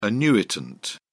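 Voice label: "annuitant", British English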